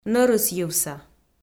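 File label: "нӧрысйывса" Komi-Zyrian, female; kpv audio dict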